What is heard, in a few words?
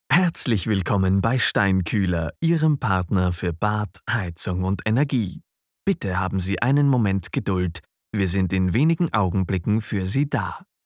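german interactive voice response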